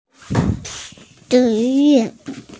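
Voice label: "zhi4 yu4", Mandarin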